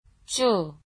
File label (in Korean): "쭈", korean